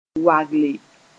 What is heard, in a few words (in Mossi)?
"wagle", Mooré; make slim